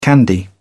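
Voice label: "candy", British English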